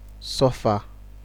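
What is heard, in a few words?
"suffer", Nigerian Pidgin, male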